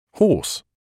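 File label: "hoarse", British English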